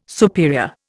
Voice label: "superior", Indian